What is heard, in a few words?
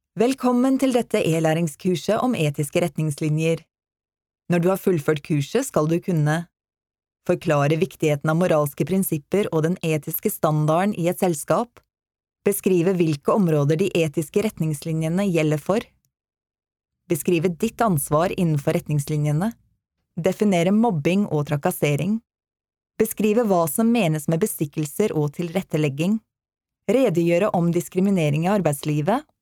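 Norwegian female e-learning ethical guide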